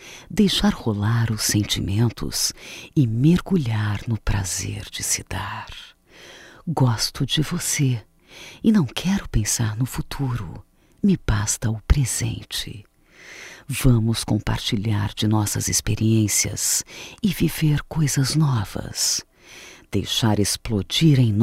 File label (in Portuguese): Diferença de Idade Feminino; Telemensagens 2017; MOMENTOS DE AMOR